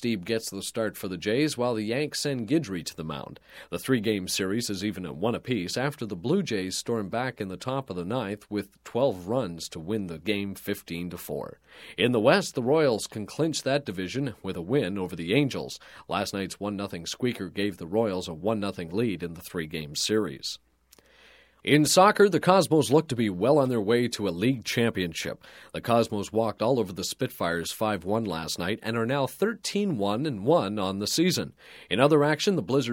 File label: Broadcast, Sports Generic Sports Report, Radio; Announcer; Sound Ideas; Series 2000